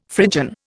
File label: "Phrygian", Indian; Dictionary